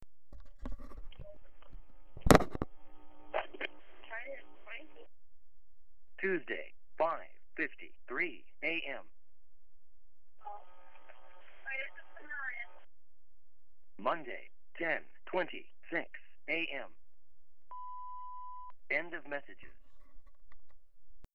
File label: Phone Messages; EVP; This is an EVP which appeared on my answering machine, like all the others, it was from an unknown name, unknown number